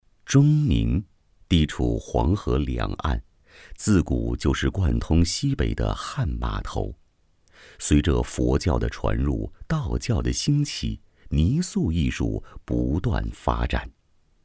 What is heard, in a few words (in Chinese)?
男 纪录片